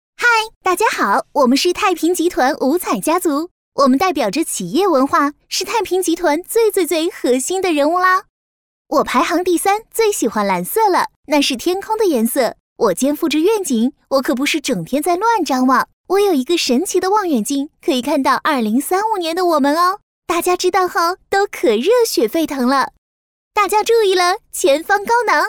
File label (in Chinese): 女, 人物篇, 活泼女声